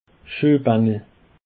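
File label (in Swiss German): "schuehbaendel", Alsatian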